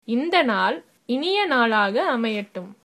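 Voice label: have a nice day, Tamil, female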